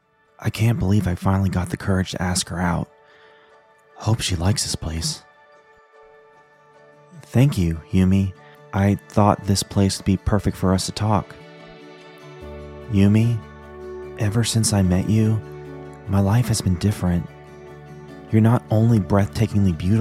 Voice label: Anime Character With Background Music